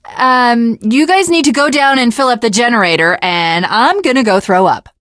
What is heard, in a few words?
finale chat, teengirl, voice; Left 4 Dead 2